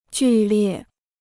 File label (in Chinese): "剧烈", Chinese